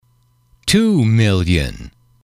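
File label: two million, male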